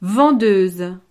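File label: "vendeuse", French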